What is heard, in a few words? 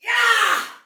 Attack Soldier Female, Battle Barks; Sinecure